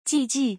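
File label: "ji ji", Mandarin Chinese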